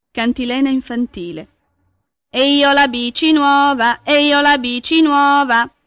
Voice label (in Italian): cantilena infantile